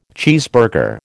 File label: "cheeseburger", American